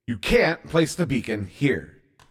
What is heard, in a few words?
Invalid Placement, CTF Announcer